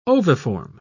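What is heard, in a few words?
"oviform", English, British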